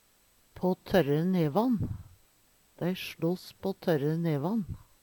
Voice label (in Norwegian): pa torre nevan, Numedalsmål; på tørre nevan; Dei sloss på tørre nevan.; Uttrykk